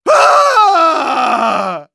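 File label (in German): Medic pain critical death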